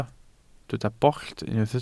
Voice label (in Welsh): "bollt", Welsh